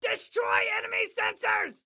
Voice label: Attack Sensors, Excited Guy

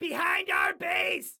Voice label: Warn Enemy Behind Our Base, Excited Guy

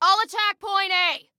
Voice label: attack, Female

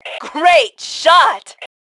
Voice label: Compliment Great Shot, Female; T2 Female 01

